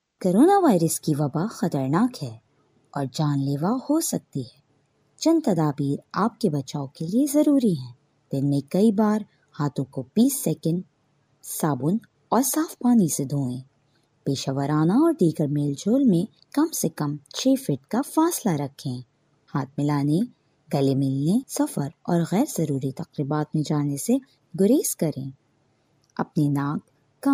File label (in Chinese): 乌尔都女声